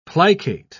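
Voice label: "plicate", British English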